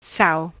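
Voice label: "sow" noun, US English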